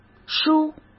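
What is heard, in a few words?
"书", Mandarin Chinese; chinese flashcards; hsk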